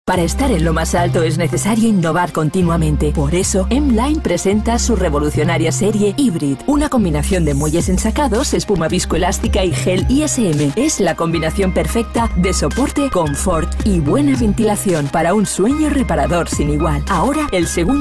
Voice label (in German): ESP Cool; Fremdtake